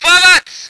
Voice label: voice ger vehicle